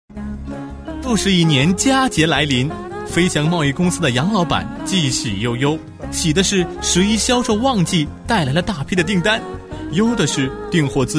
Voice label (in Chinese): 广播广告, 男声配音, 年轻磁性; 国语男声配音; 中信银行